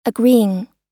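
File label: "agreeing", US English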